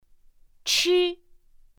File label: "chi", pinyin; pinyin2; pinyinji